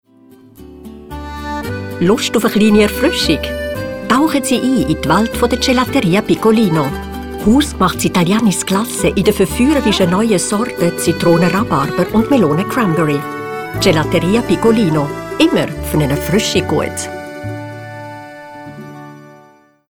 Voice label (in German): Werbespot; Piccolino